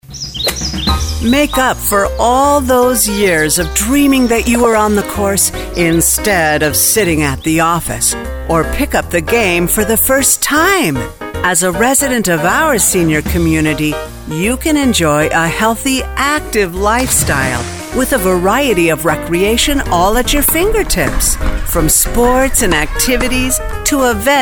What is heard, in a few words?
Retirement enthusiastic excited high tone, Commercial Voiceover